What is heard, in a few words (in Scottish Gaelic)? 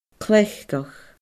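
"cleachdadh", Scottish Gaelic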